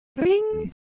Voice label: Semai Sound

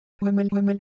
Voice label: Korean Texture; Ideo Phones

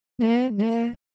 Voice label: Japanese, Ideo Phones

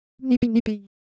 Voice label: Ewe Motion, Ideo Phones

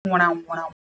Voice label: Siwu Color Visual

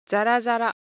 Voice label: Japanese Texture, Ideo Phones

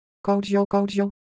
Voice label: Ewe Motion, IdeoPhones

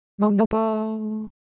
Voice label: Siwu Shape; ideophones

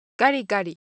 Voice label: Japanese, Ideo Phones

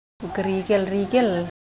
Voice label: Semai Texture

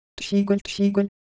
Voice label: Korean Sound; ideophones

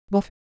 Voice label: Ewe, ideophones

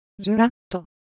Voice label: Japanese Shape, Ideo Phones

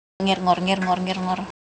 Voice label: Semai, ideophones; Motion

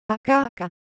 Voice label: Japanese Color Visual, ideophones

